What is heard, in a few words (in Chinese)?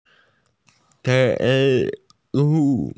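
"nai3 niu2" Mandarin Chinese, female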